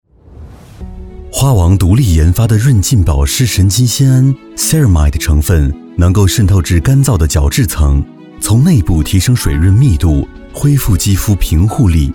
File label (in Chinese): 中文科技感护肤品; 珂润